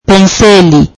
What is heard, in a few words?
swahili pencil